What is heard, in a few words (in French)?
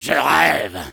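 negative vocalization, French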